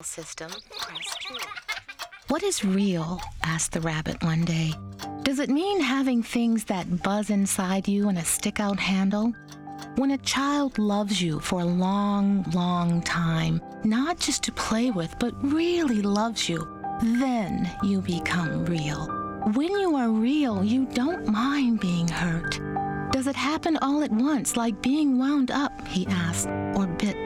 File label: audiobook; velveteen